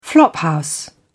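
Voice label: "flophouse", British English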